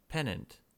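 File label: "pennant" English, US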